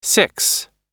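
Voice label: "six", American English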